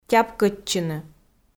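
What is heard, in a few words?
"тяпкӧдчыны", Komi, female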